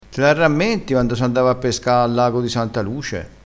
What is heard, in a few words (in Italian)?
"arrammentassi", crespinese